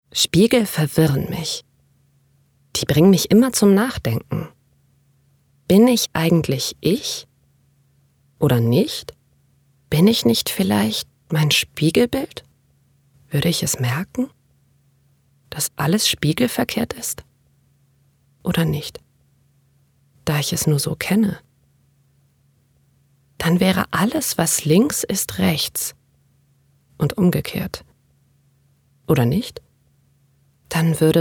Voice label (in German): Innerer Monolog